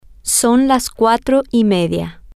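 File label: at half past four, Spanish, female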